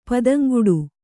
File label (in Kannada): "ಪದಂಗೆಡು", Kannada